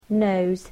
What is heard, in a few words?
"nose", English